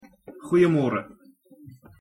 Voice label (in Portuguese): prouncia bom dia em afrikaner